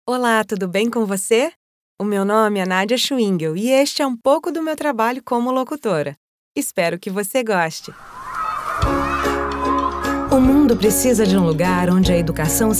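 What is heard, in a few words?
Brazilian Portuguese, female, various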